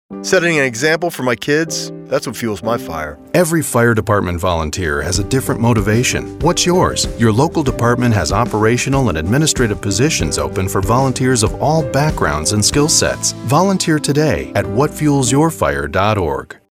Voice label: male radio ad